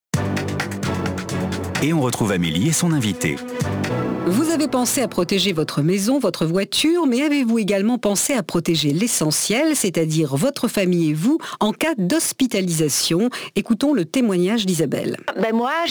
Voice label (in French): naturelle narrative; Axa Chroniques; Banques / Assurances / Pub TV